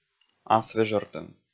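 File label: "асвежӧртӧм", Komi, male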